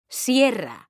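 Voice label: "sierra", Spanish, female; mekanta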